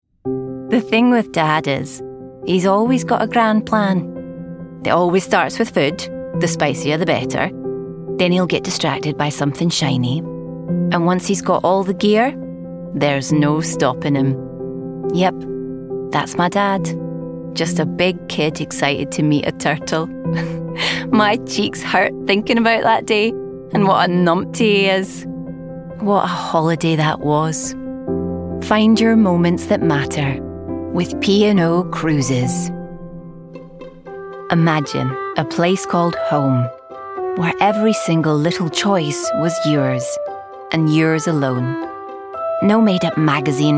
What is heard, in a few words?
commercial, Female, Engaging, Soothing, Storytelling, Trustworthy, Warm, Whimsical; COMMERCIAL REEL